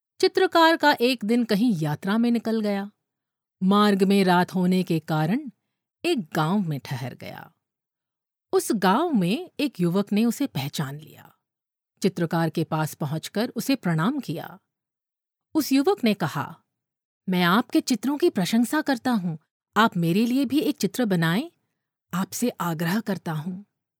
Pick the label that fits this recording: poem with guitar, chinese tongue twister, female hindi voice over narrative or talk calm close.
female hindi voice over narrative